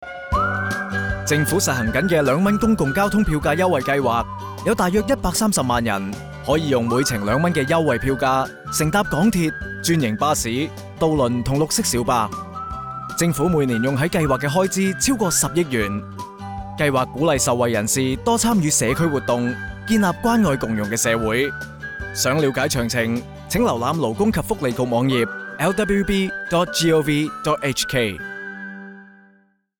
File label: Cant Radio; 粵語廣播; 政府長者及合資格殘疾人士公共交通票價優惠計劃 (二元優惠計劃); Promotion $2 Scheme